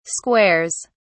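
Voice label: "squares", British English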